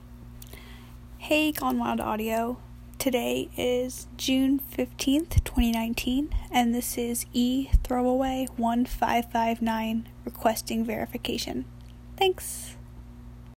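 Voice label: Verification, female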